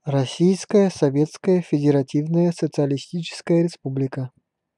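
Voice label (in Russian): "Российская Советская Федеративная Социалистическая Республика" Russian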